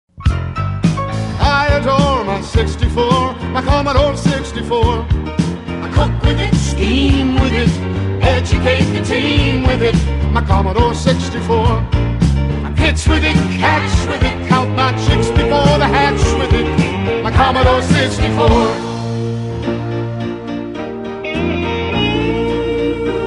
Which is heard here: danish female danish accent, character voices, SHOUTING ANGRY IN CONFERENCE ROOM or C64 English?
C64 English